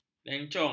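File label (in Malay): "lencong", Malay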